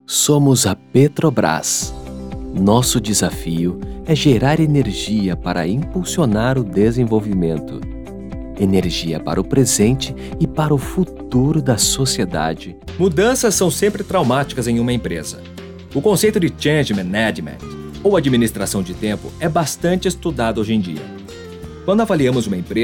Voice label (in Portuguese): Institucional